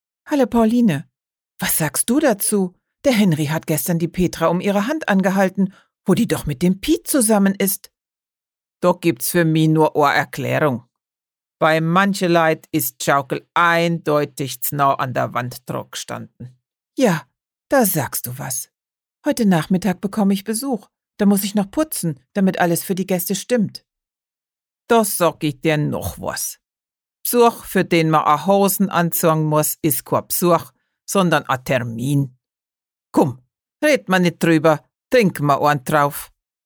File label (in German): Stimmprobe Bayrisch